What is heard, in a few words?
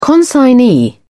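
"consignee", English, British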